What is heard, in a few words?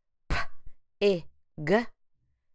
p ĭ g, phonemic segmentation